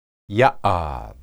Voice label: "yahad", Hebrew